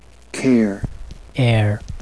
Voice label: "care", US

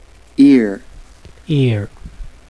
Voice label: "ear", US

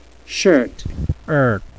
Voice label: "shirt", US

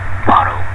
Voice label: bottle us

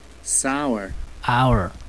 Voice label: "sour", US

